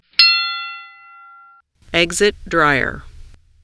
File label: Exit Dryer with effects